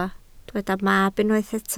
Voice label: "mab", Welsh